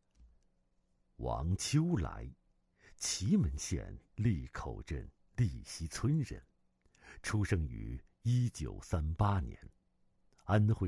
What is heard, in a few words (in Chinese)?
男, 人物; 祁门目连戏第四代传人